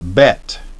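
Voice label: "bet", English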